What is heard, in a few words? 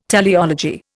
"teleology", Indian